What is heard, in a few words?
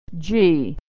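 "g", American English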